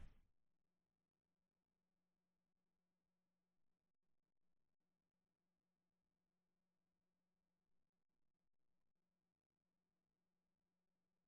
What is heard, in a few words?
Radio Spot